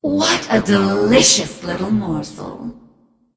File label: taunt directed; smite; arachne; ratatoskr